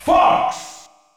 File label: Announcer; Fox; SSB